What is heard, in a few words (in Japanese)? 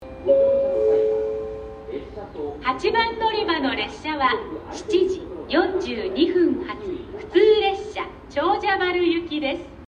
博多8番 停車中放送（普通 長者原行き）